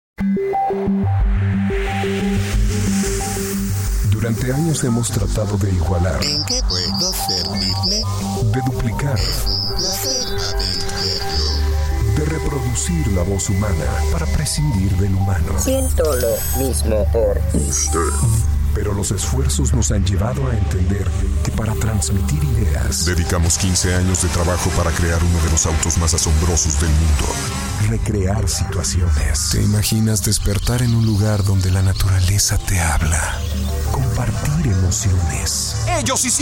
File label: spanish mexican commercial; Mexico; Mexican actor and voice over owns a fresh and versatile voice